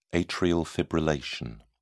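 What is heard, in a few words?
"atrial fibrillation", English, British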